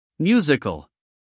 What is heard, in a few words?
"musical", US male